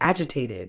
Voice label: "agitated", Medical English; ˈædʒ.ɪˌteɪ.tɪd; AJ-i-tay-tid